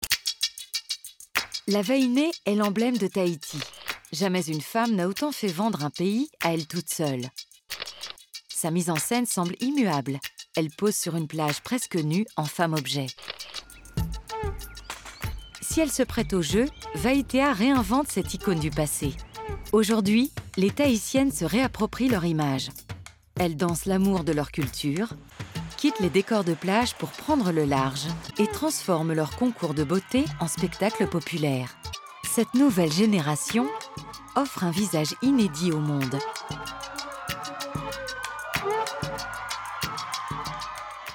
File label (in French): Docu; Tahiti